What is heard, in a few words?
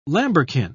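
"lambrequin", British English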